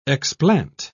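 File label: "explant", English, British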